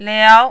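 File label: "liao", Mandarin, second tone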